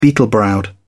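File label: "beetle-browed", English, British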